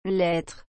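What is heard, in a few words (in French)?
"lettre", French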